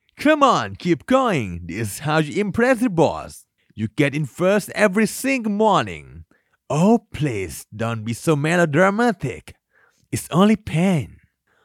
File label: english